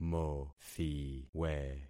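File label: "mothiwe", concatenated syllables; Affixing experiment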